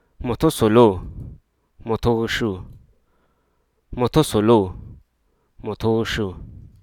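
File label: Hruso Aka; drive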